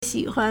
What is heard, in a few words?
"xi3huan1", Chinese